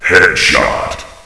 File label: headshot, announcer